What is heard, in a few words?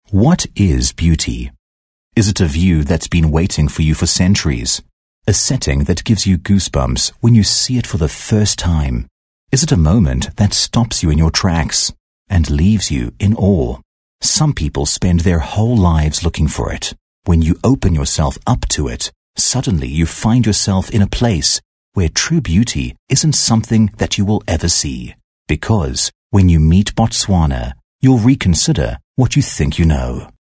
English Dramatic